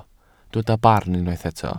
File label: "barn", welsh